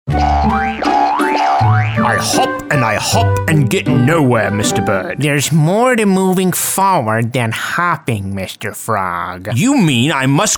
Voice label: Characters Male, commercial voiceover